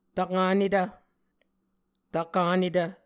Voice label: "tvkanetv", Muscogee